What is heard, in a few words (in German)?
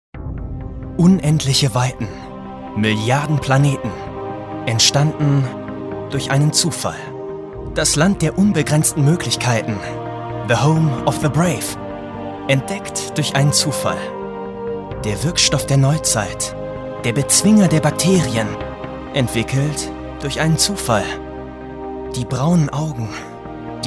Episch